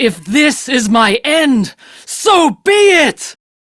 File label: Crew Near Death, Female; Dialog; en; Warframe; RailjackCrew; Steel Meridian Female